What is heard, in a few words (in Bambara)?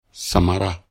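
"samara", Bambara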